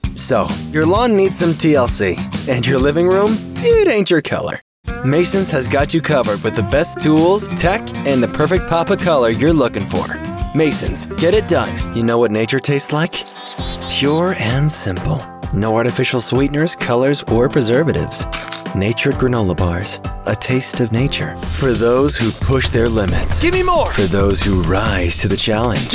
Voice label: Commercial